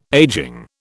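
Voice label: "ageing", British English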